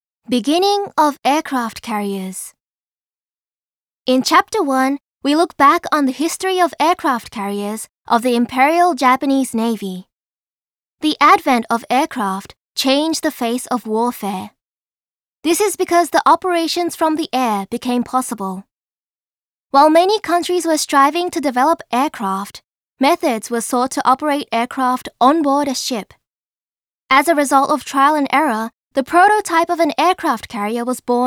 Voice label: English; Yamato Museum